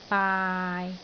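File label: "baai6", Cantonese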